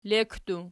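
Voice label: "lekki" plural, Archi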